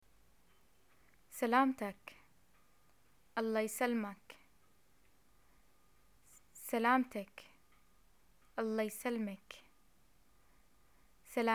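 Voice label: get well soon, Jordanian Arabic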